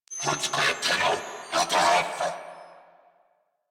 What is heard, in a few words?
Player Spotted Grineer Male; New War; Narmer